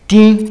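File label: "tin", English; fonetica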